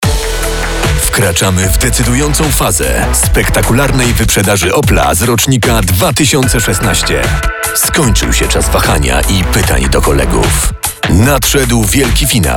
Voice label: reklama wyprzedaz

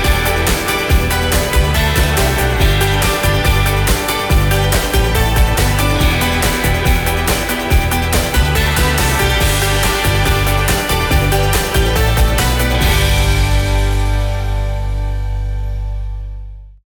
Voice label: reklama astra

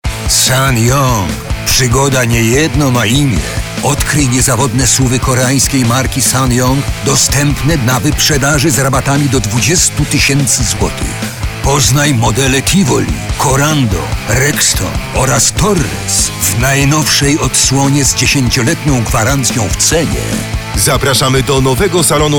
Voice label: reklama wyprzedaz; ssangyong

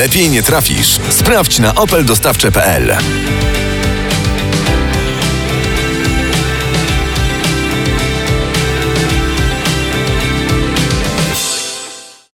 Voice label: reklama dostawcze

